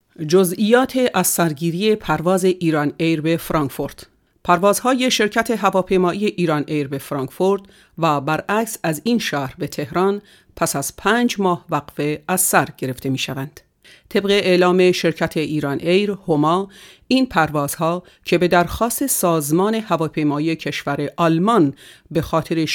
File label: Farsi